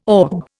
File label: "orb", Indian